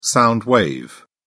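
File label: "sound wave", British English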